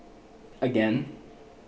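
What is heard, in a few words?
"again", Canadian, male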